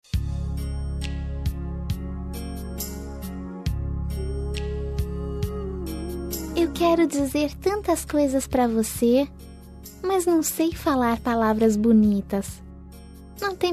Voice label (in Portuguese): dia dos pais voz infantil feminina